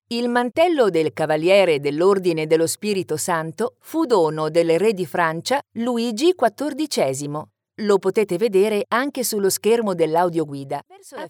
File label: Italian narration